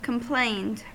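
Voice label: "complained", US English; pronunciations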